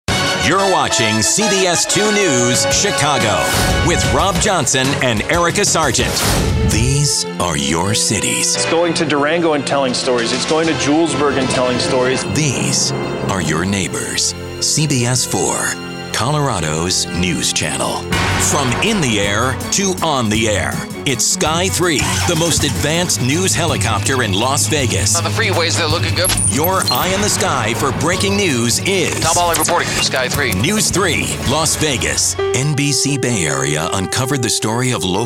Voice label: tv affiliate male